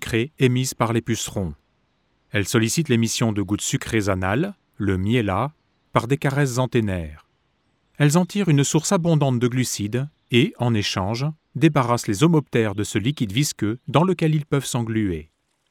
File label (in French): voix off docu fourmis